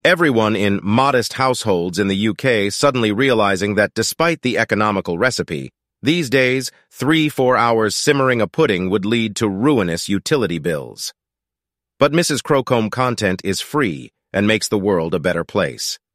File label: synthesized audio